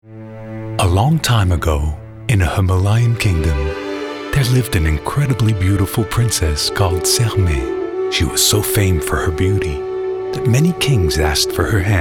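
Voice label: Voix Off Voice Over, bilingue Américain Français; Chanel Us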